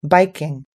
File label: "biking", US English